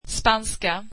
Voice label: "Spanish", swedish

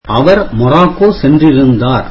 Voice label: tamil he visited Morocco

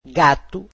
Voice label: "cat", portuguese

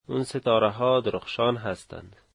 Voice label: those stars are shiny, dari

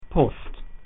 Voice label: "after", latin

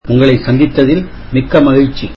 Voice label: tamil nice to meet you

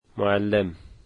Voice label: "teacher" Dari

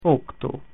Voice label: "eight", Latin

